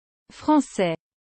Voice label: "Francais", french